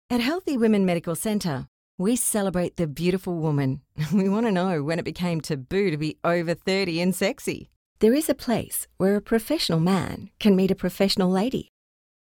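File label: Conversational, female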